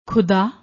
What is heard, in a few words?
"khuda", Punjabi